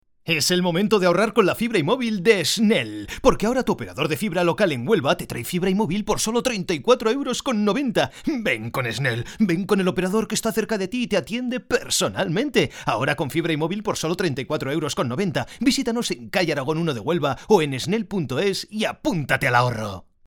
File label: e-learning